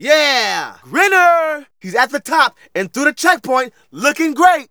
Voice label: Narration; Ollie King

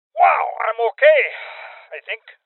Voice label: Voices Armor Shot Male Old; Rebel Cops

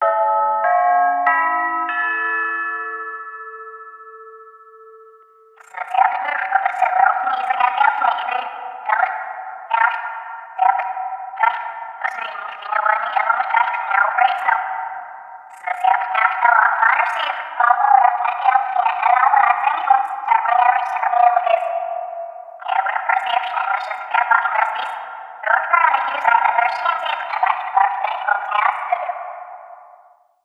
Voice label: Airport PA

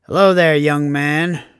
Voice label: Voices male meet player

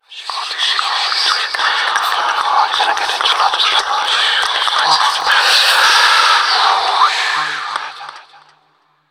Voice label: Whispers

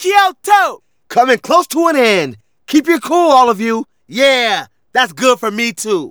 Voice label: Narration; Ollie King

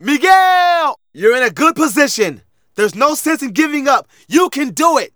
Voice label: Narration

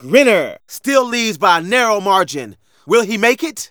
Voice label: Narration; Ollie King

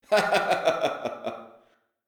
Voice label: Diner Voice; Levels Hub Orleans; Cake Decorate

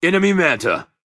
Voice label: Voices Character - Reaper; unreal development kit

